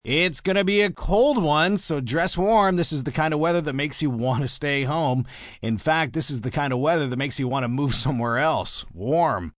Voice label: Weather Cold M, Broadcast, weather, male weather report, cold one, dress warm, humourous; news